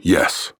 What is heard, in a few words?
Emote Yes; paladins; Owl